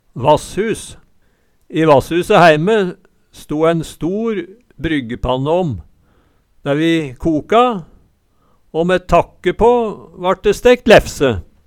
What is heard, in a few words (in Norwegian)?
vasshus, Numedalsmål